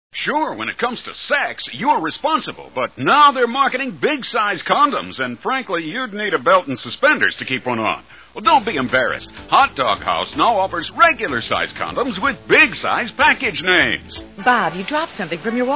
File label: Commercials; Freekin Weird Audio